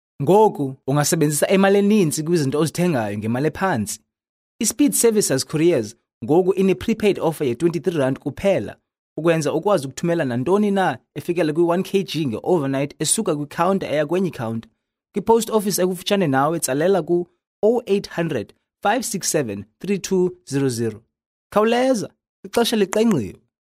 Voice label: xhosa